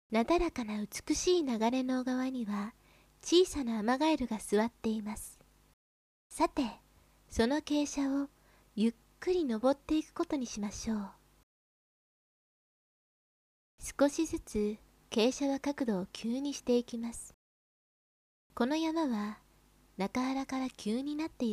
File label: narration